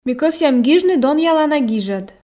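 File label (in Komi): ми кӧсъям гижны донъялана гижӧд, Komi, female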